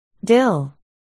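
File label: "dill", spoken word, articulation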